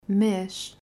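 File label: "Mes", Portuguese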